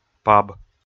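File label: "паб", Komi, male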